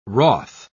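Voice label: "wroth", English, British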